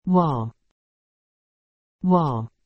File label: "wall", English